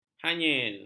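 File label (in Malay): "hanyir", Malay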